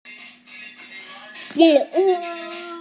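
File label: "li3 wu4", Mandarin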